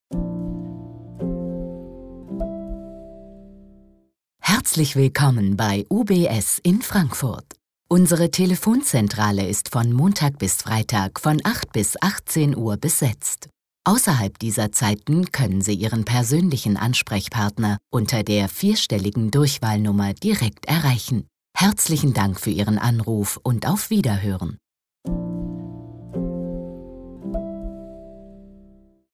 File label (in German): Ansage deutsch; UBS